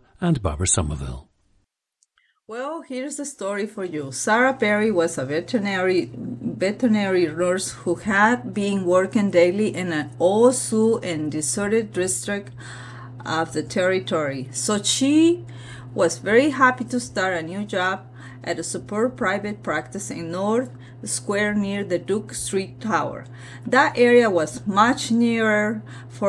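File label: veracruz, International Dialects of English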